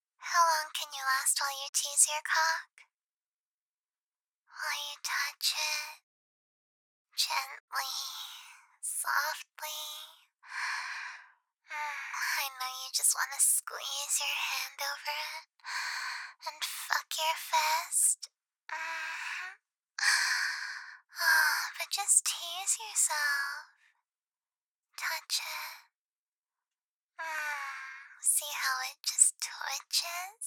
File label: VOICEMAIL